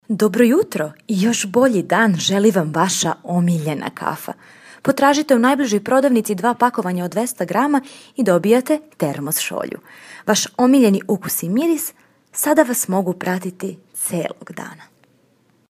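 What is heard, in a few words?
Serbian female narration; voice demos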